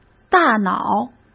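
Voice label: "大脑", Mandarin Chinese; chinese-flashcards; hsk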